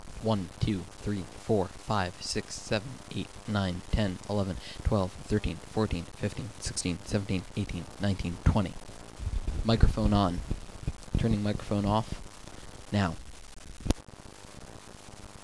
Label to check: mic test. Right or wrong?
Right